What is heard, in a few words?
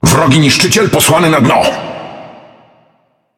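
Speech Destroy Enemy Destroyer, Polish; World Of Warships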